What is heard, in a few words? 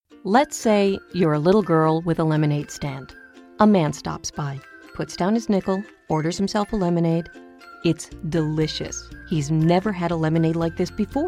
Commercial